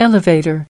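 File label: "elevator", English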